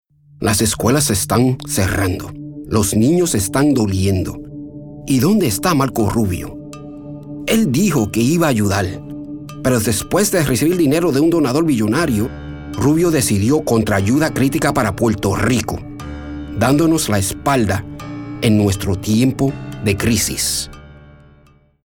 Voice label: Spanish Conversational Political